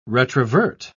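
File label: "retrovert", British English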